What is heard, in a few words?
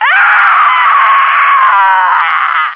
female death